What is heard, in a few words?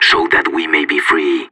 radio lock n load, separatist; player vo